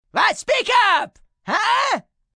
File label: Speak Up Huh, male; Response; Talking; VoiceBox